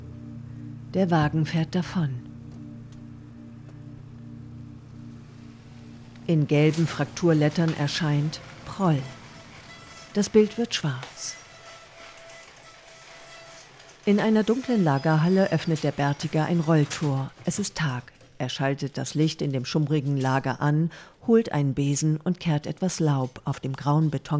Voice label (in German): Audiodeskription